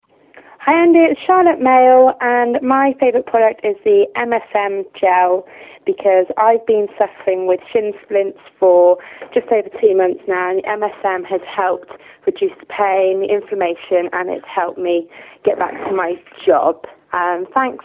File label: male favourite product